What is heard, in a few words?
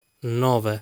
"Nove", Italian; lesson 2 numbers